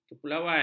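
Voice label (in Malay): "kepulauan", Malay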